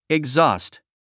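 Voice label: "exhaust", English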